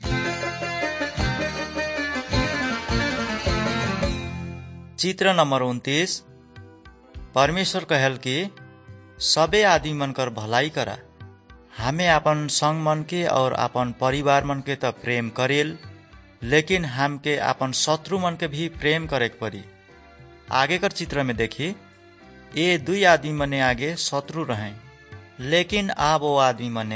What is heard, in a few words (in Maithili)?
good news maithili, nt drama; maithili kisan; wycliffe bible translators inc